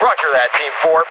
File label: Dispatch